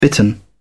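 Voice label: "bittern", British English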